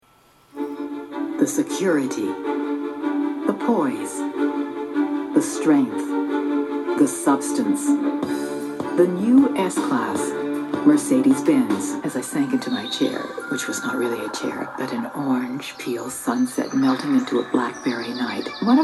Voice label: voiceover